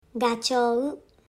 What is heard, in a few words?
"goose", Japanese